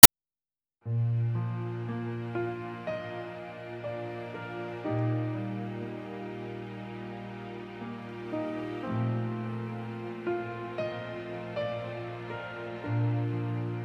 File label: MAND, mandarin